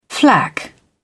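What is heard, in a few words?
"flak", British English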